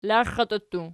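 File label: "laxatii" plural, Archi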